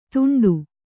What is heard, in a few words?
"ದುಂಡು", Kannada